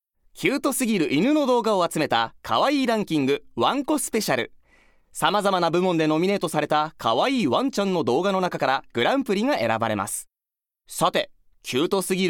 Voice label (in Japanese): ナレーション